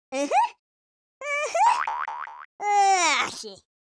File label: taunt, Geordie; speech